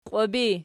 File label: "qwib", Archi